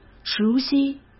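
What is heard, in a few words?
"熟悉", Mandarin Chinese; hsk; chinese-flashcards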